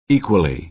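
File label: "equally", American English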